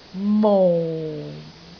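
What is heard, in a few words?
"mou4", Cantonese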